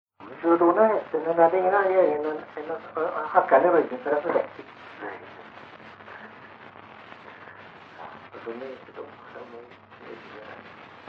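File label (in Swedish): Morup Halland, dialekter; Folkminnen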